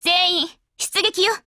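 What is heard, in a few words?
GO ATTACK Japanese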